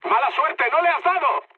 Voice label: bomb failure, mood high, speech; War Thunder